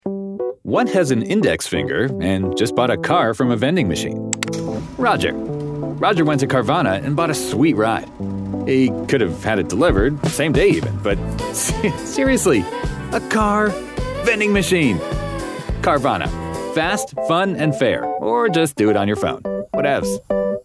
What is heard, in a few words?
Commercial; Carvana